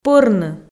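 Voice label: "пӧрны", Komi, female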